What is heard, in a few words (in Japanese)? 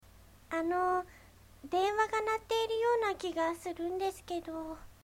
yowaki